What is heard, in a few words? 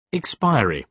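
"expiry", British English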